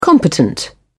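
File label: "competent", British English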